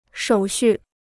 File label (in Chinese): "手续", Chinese